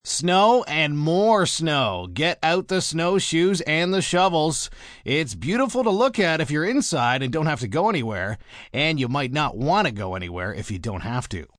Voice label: Weather Snow M, Broadcast, weather, male weather report, snow and more snow, news